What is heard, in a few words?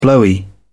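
"blowy", British English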